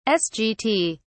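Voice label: "sgt", British English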